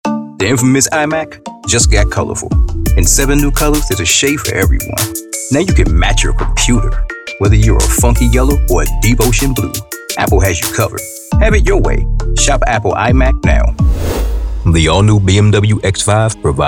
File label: Commercial